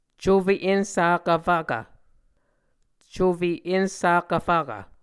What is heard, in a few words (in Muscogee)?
"cufe ensakkv fvkv", Muscogee; Mvskoke Words with Voicing Consonants; Martin, Jack B., and Margaret McKane Mauldin. 2023. A Dictionary of Creek/Muskogee